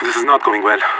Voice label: scared emote; Counter Strike Model Pack; CS:GO; leet